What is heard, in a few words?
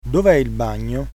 toilet, italian